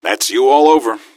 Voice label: answer, gasmask; scmod

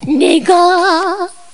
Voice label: cheer

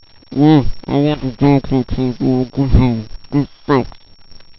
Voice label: mumble

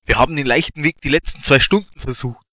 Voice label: dialog

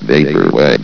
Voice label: vaporwave, vox

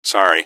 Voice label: sorry, gasmask; scmod

